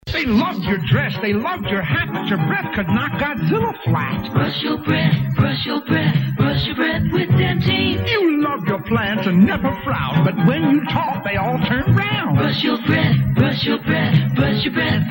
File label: Radio Commercial Dentyne Chewing Gum; 1967